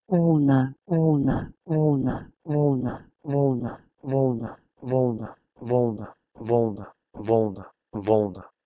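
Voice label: Proto-Indo-European wlh1nh2 to Macedonian volna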